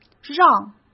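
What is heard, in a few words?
"让", Mandarin Chinese; chinese-flashcards; hsk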